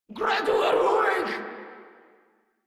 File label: Reload Grineer Female; Warframe